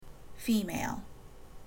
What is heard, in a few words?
FEMALE, ENGLISH